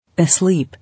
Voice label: "Asleep", English

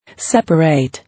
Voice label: "Separate", English

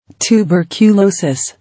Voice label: "Tuberculosis", English

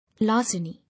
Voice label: "Larceny", English

